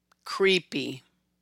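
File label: "Creepy", American English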